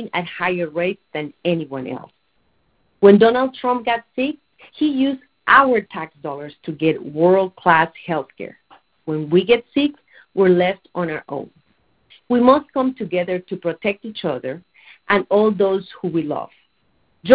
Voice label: Hispanic English